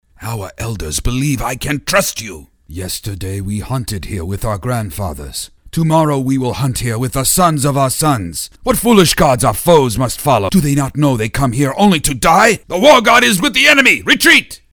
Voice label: Native General, Storng & Sensitive; animation